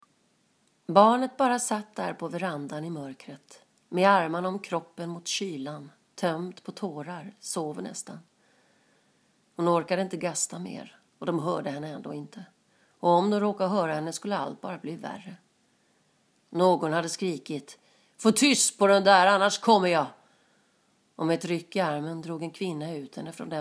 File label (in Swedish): Rikssvenska